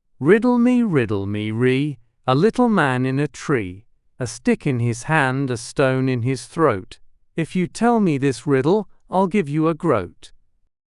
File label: male brit; Riddle Me Ree